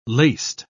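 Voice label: "laced", British English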